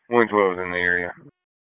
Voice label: FIRE OPS, p25